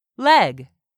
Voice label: "leg", English; 3000 words